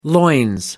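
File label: "loins", British English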